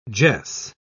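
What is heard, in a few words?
"jess", British English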